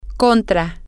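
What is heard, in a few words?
against, Spanish, female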